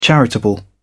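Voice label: "charitable", British English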